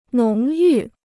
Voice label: "浓郁", Chinese

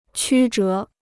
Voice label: "曲折", Chinese

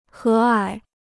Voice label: "和蔼", Chinese

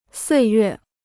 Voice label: "岁月", Chinese

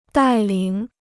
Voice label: "带领", Chinese

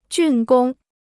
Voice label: "竣工", Chinese

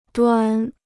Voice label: "端", Chinese; duān; end; extremity

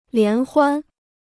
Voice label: "联欢", Chinese

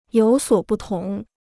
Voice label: "有所不同", Chinese

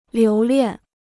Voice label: "留恋", Chinese

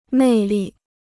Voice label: "魅力", Chinese

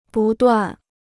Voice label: "不断", Chinese

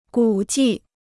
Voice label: "古迹", Chinese

